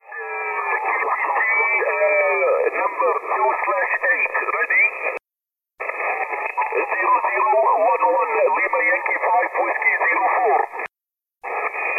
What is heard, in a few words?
ssb, contest